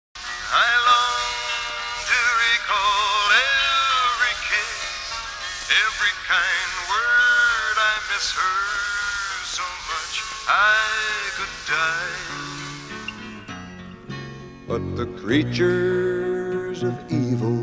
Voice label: Radio Commercials; 1972